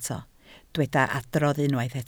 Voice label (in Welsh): "adrodd", Welsh